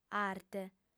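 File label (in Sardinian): "arte", female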